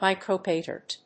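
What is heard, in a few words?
"micro pattern", English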